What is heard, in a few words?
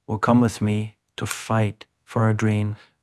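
Voice Acting, Spanish, male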